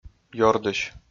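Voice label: "ёрдысь", Komi, male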